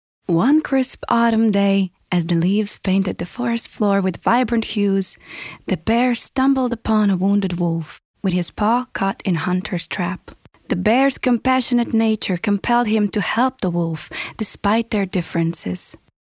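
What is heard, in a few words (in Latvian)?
English Pasaka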